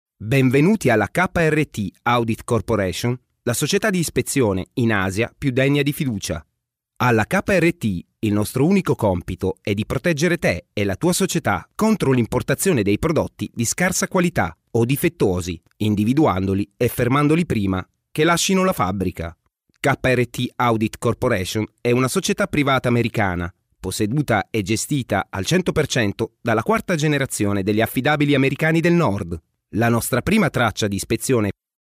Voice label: ITA M MULTIMEDIA